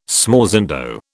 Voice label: "smorzando", British